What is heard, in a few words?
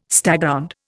"staghound", Indian